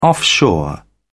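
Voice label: "offshore", British English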